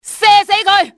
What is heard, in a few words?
kill him, cantonese; XBOX; enemy